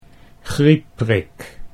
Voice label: griepprik, Dutch

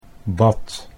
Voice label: "bad", Dutch

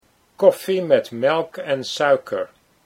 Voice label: "koffie met melken", Dutch

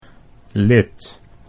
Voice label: "lid", Dutch

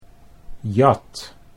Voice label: "jat", Dutch

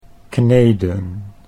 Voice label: "kneden", Dutch; 'to knead' (like, bread)

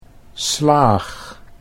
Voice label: "slaag", Dutch

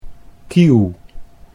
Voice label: "kieuw", Dutch

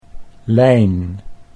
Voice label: "lijn", Dutch

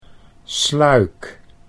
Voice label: "sluik", Dutch

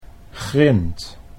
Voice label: "grind", Dutch

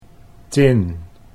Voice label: "tin", Dutch

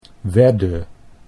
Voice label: "wedde", Dutch

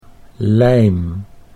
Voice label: "lijm", Dutch

